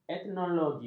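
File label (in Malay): "etnologi", Malay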